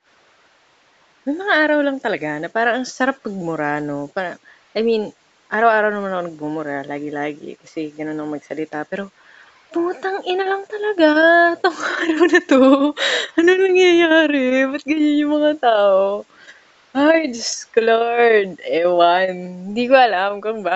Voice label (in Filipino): Tagalog ba kamo